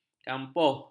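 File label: "kampuh", Malay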